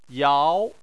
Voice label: "yao2", Chinese; Chinese Surnames

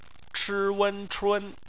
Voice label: "chun", Chinese; phonetics; bpmf

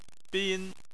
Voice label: "bin1", chinese

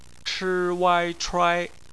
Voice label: "chuai", Chinese; phonetics; bpmf

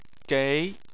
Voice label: "gei2", Chinese

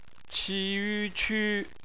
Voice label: "qu", Chinese phonetics

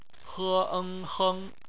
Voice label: "heng", Chinese; phonetics; bpmf

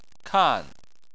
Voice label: "kan4", Chinese

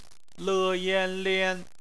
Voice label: "lian", Chinese; bpmf

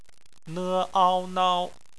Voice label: "nao", Chinese; phonetics

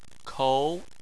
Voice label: "kou2", Chinese

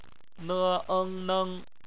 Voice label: "neng", Chinese; phonetics

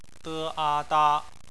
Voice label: "da", Chinese; phonetics; bpmf

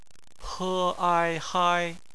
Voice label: "hai", Chinese; phonetics; bpmf

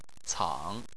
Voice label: "cang3", chinese

